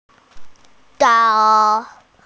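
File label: "shan1", Mandarin Chinese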